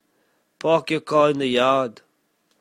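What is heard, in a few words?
park your car in the yard, south african english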